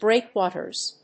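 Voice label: "Breakwaters", English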